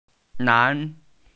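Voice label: "nan2", Mandarin Chinese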